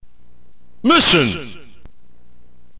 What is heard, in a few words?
narrator